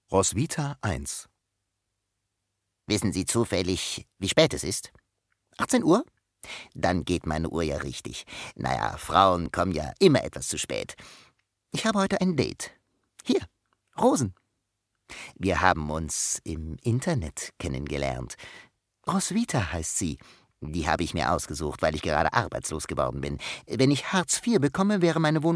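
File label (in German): Satire, Sprecher, Männer; Berlin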